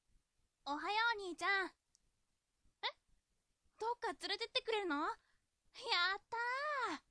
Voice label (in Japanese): dansei